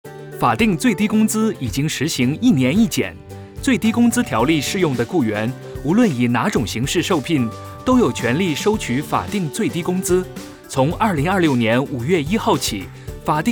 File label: Mandarin